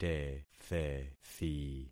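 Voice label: "tethethi", concatenated syllables; Affixing experiment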